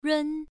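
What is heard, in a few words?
"run" Mandarin Chinese, first tone, pronunciation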